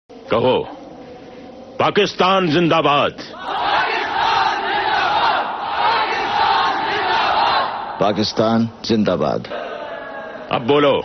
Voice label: Dialogue Ringtone; Gadar 2